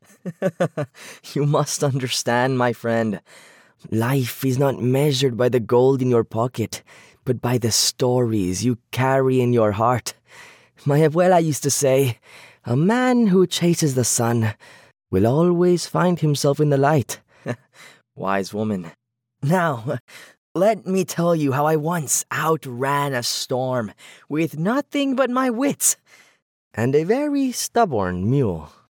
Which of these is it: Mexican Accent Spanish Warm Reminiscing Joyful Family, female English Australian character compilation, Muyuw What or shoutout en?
Mexican Accent Spanish Warm Reminiscing Joyful Family